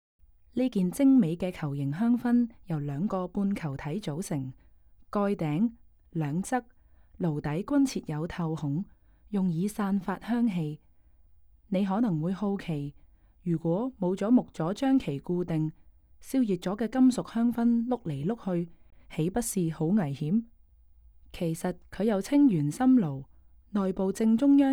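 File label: Cantonese, audio guide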